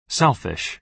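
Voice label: "selfish", American English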